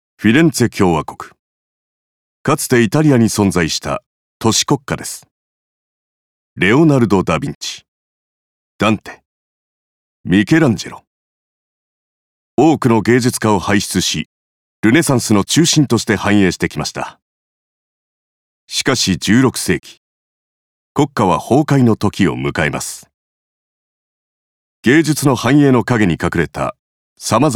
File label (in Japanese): 紀行ナレーション